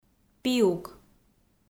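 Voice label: "пиук", Komi, female; audio dict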